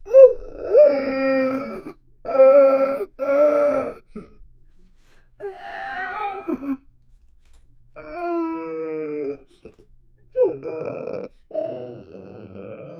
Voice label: man babbling in anger con